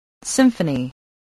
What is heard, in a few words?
"symphony", English